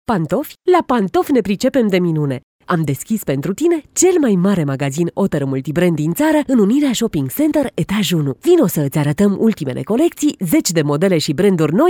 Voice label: romanian